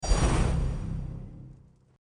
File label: exalted; telebot soundboard